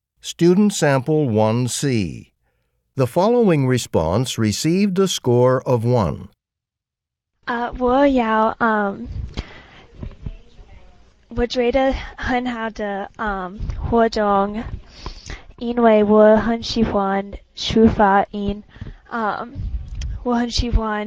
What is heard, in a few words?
chinese conversation